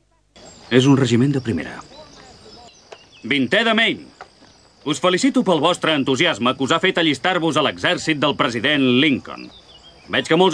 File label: characters, Catalan, male